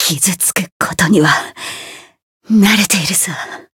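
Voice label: Voice win barely, Japanese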